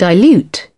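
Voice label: "dilute", British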